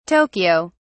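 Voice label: "tokyo", British English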